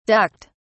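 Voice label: "ducked", British English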